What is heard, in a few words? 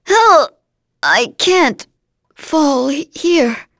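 Vox Dead, English; Glenwys; kingsraid; voices heroes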